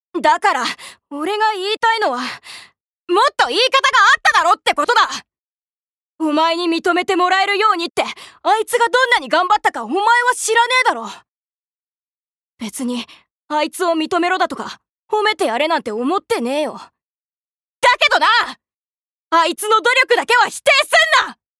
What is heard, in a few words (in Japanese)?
アニメ